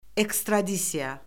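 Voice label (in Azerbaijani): "ekstradisiya", Azerbaijani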